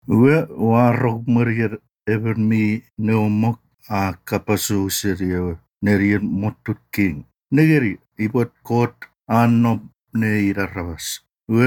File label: CHUUKESE